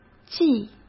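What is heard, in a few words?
"计", Mandarin Chinese; chinese-flashcards; hsk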